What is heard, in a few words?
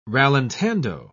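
"rallentando", British English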